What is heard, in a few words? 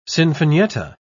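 "sinfonietta", British English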